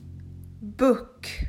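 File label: "Book", English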